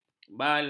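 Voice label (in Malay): "balak", Malay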